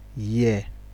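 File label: "year", Nigerian Pidgin, male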